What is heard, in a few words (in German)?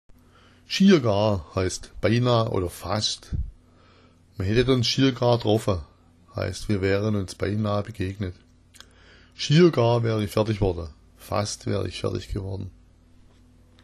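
schiergar, schwäbisch; schiergar heißt beinahe oder fast.; „Mir hättet ons schiergar troffa“ = wir wären uns beinahe begegnet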